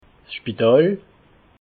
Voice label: "spital", alsace

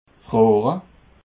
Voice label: "gfroore", Alsace

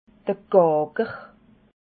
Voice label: "gaager", Alsace

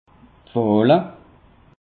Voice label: "wahle", alsace; peche

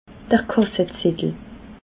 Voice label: "kassezettel", alsace

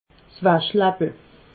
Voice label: "waschlappel", alsace

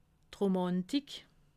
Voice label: "romantik", alsace

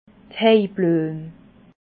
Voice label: "heibluem", alsace

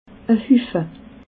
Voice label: "hufe", alsace

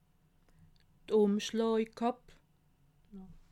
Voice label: "umschlaaklapp", alsace

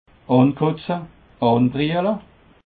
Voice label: "ankotze", Alsace

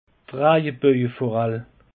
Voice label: "rajebojeforall", alsace; peche

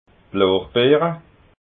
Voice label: "lorbeera", alsace

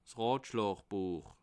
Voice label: "rotschlaabuech", alsace

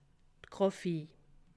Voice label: "graphie", alsace

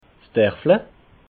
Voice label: "derfla", alsace

